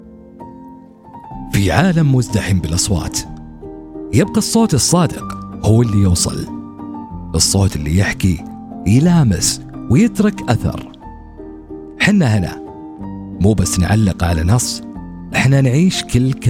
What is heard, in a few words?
arabic commercial